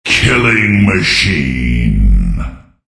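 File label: killing machine, male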